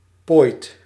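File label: "pooit", Dutch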